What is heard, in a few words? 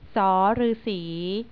"rusi", Thai